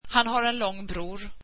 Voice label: swedish he has a tall brother